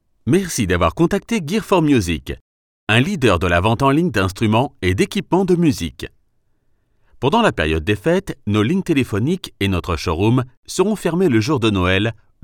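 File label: French male telephone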